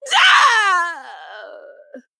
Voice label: Female Troll Death